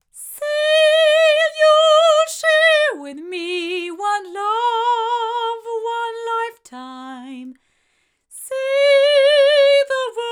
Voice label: omni female; studio projects

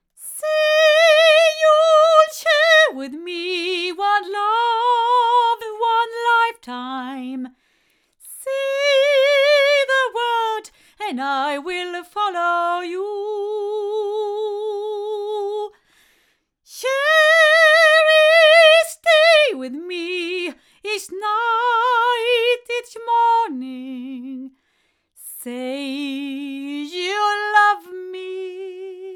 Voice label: Hansen HCM-101 female, microphones

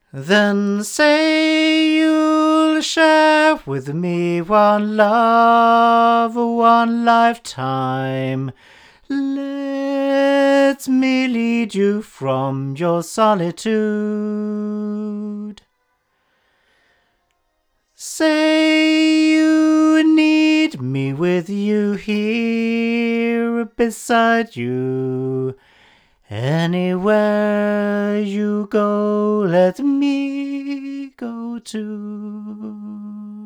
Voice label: Royer R-101 back male

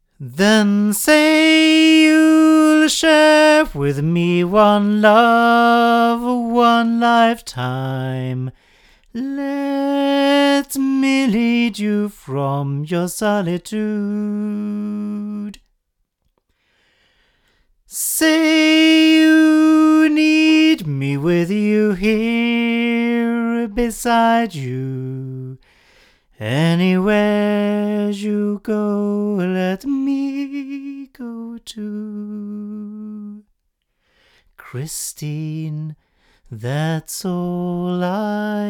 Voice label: Golden Age FC3 cardioid male

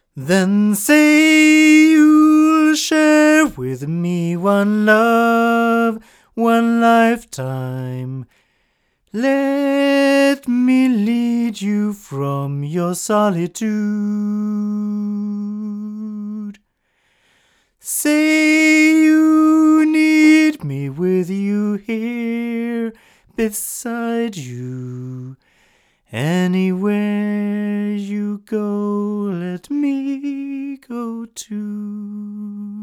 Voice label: male, microphones recordings; Generis GC1

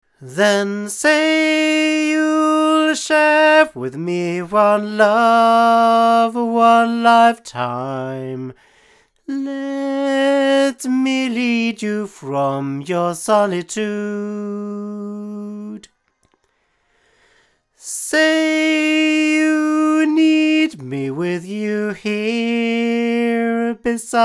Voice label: AudioTechnica AT4033A male

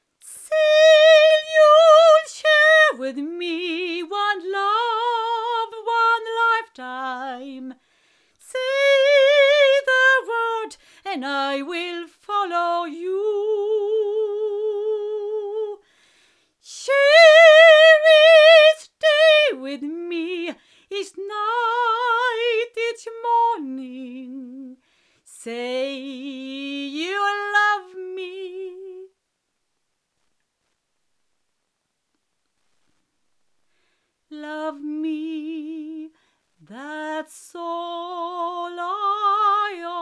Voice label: ShinyBox 46MX female, microphones

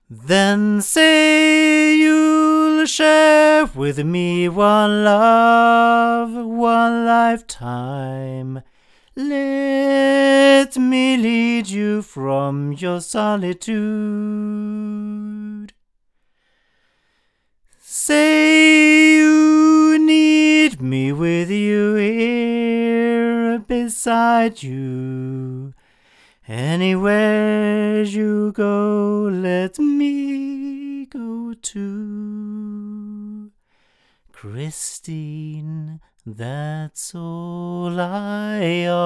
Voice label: Rode NTK male

